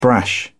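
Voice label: "brash", British English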